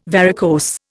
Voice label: "varicose", Indian